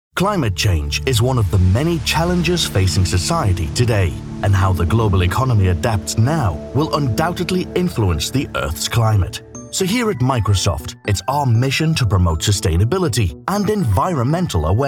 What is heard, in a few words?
Narration Showreel